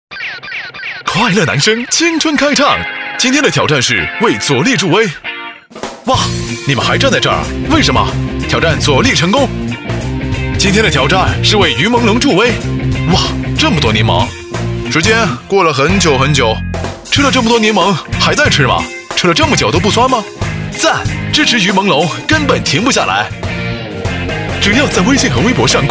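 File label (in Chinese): 国语 男声 宣传片 深沉浑厚 大气浑厚磁性 沉稳 科技感; 逸景盛熙城-地产宣传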